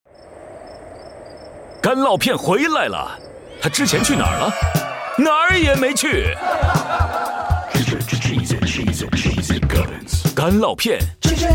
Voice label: Mandarin Ad Cheesy; 双点校园; 电台广告

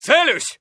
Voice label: Neutral

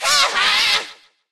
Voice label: kill vo evil

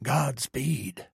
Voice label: trader male like quest accepted

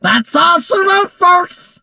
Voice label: toon cordelius die vo; 纸片人科迪琉斯

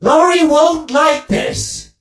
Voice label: robo twin hurt vo

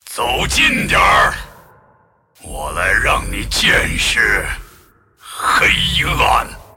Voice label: move, zh

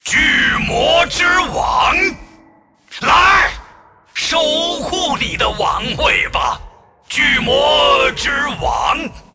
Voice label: move, zh; Aatrox

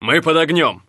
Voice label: Ru Neutral

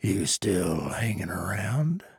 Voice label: trader male neutral greeting

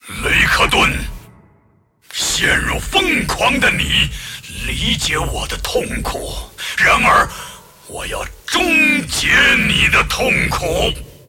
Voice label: move, zh; Aatrox

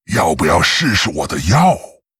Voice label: Witch doctor pissed

